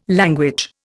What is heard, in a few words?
"language", Indian